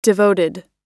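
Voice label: "devoted", American English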